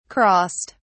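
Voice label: "crossed", British English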